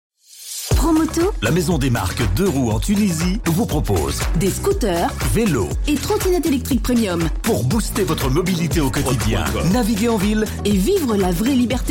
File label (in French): Radio Spot; Myra Radio; Promotos